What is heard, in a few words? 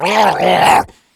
pain; nuke; redead